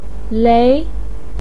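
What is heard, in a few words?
"lei" second tone, chinese, voice pinyin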